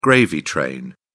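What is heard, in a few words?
"gravy train", British English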